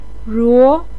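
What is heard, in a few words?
"ruo2", Mandarin Chinese, pinyin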